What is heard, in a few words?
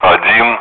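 Speech, Russian, air traffic control; Digits; DCS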